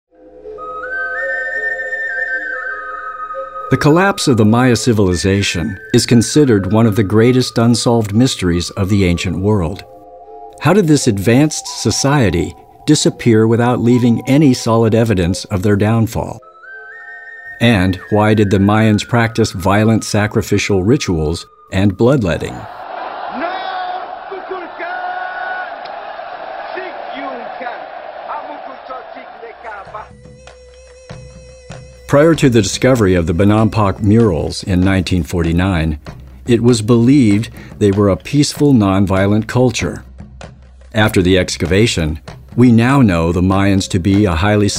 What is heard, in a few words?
narration; mayan